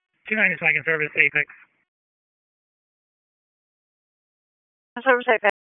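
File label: Wake County EMS Dispatch, p25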